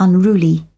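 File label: "unruly", British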